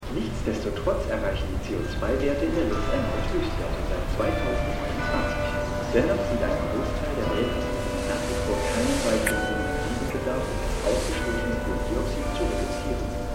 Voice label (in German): TV Sprecher; Extrapolations